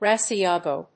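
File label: "Rasgueado", English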